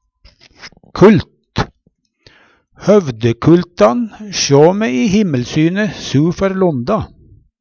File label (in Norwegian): kult, Numedalsmål